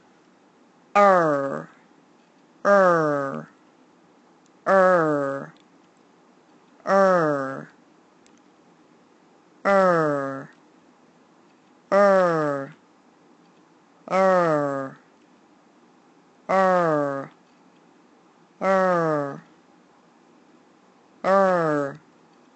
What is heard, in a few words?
r sound